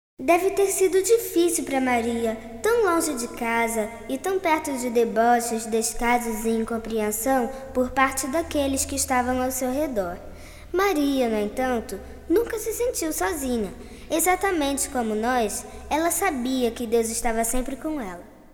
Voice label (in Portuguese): Narração